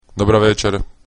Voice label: good evening, croatian